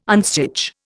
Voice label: "unstitch", Indian